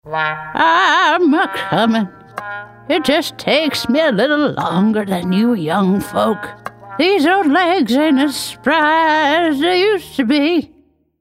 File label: ANIMATION Old Lady Southern